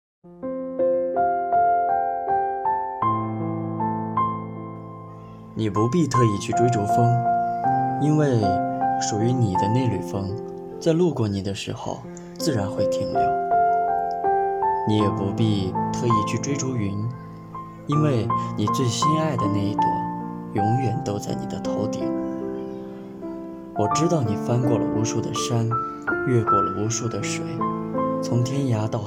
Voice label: 电台节选

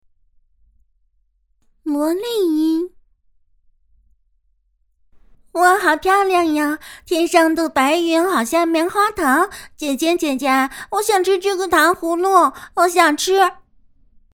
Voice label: 萝莉音

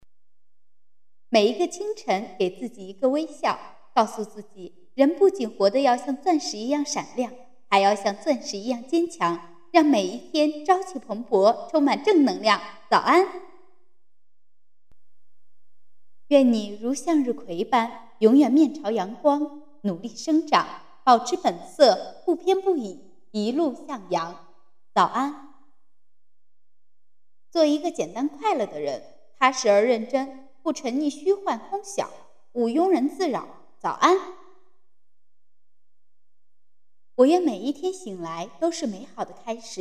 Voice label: 早安句子配音

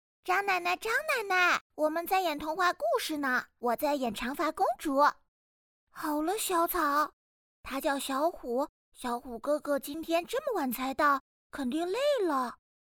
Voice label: 女童

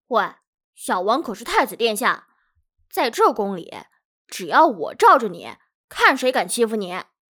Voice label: 正太音

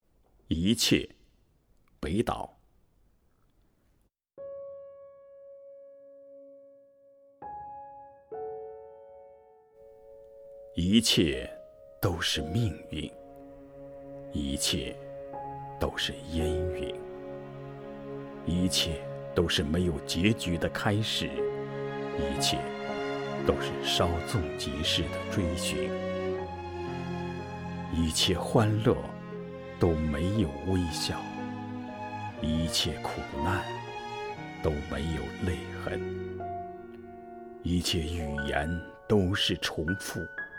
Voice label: 朗诵诗歌一切浑厚中音配音

